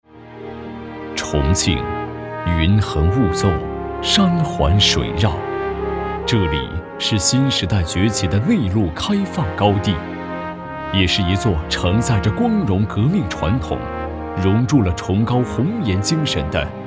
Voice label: 男 宣传片 大气情感; 重庆机要科

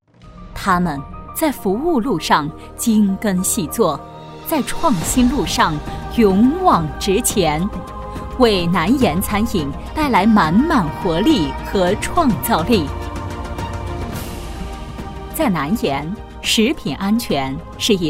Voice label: 女 专题 视频餐饮企业

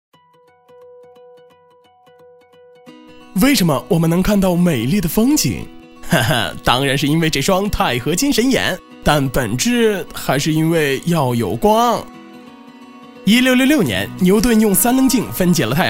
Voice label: 男 广告 品牌广告; vivo

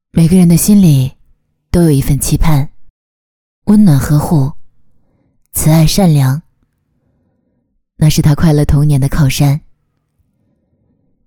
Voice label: 微电影旁白

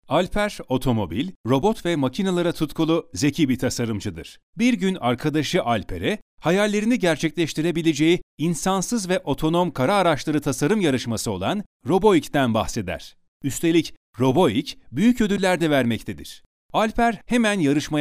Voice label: 土耳其语 男 广告干音

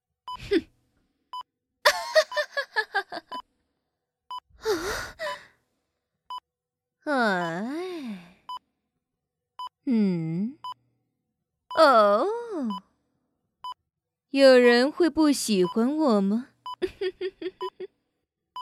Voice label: 女 角色 财神

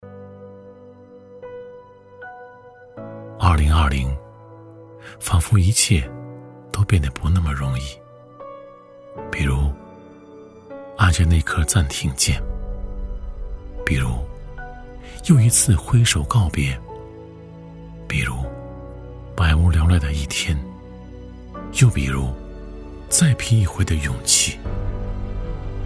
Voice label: 男 纪录片 自然讲述; 恭王府

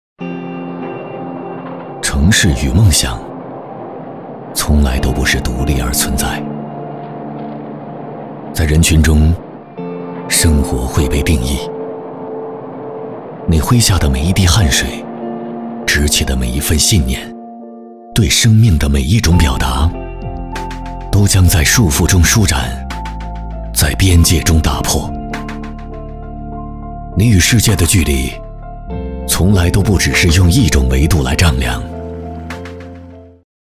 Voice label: 男 广告 走心 独白; 粤见自己

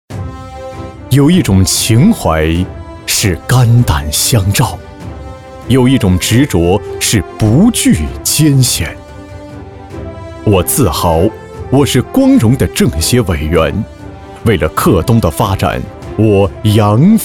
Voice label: 男 颁奖 光荣的

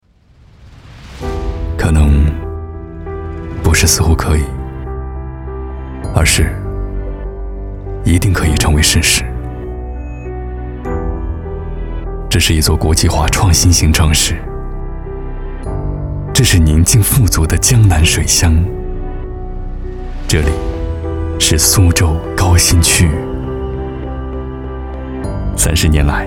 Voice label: 男 宣传片 走心科技类型宣传片

